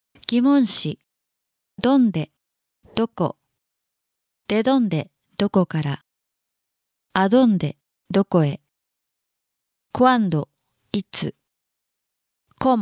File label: Spanish basic word; basic spanish 2019